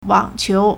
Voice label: "wang3qiu2", Chinese